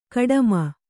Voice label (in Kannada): "ಗುಡುಮಿ", Kannada